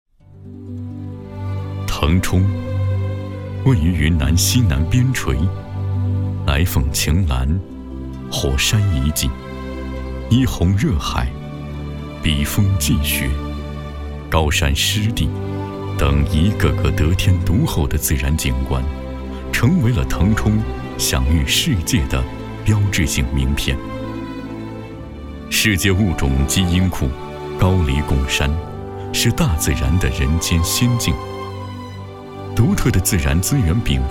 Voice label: 男 城市宣传 世界腾冲

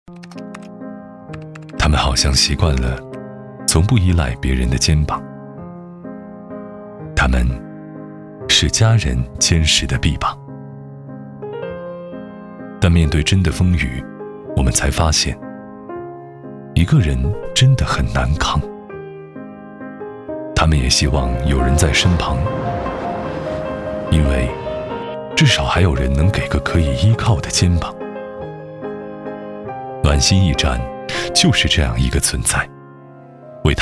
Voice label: 男, 宣传片, 暖心驿站, 党建

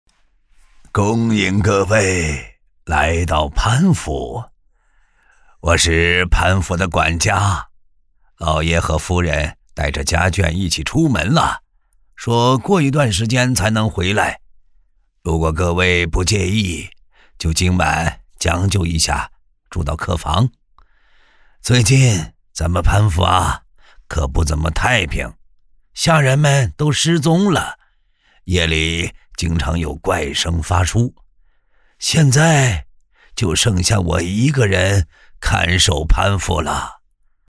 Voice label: 男, 角色, 管家

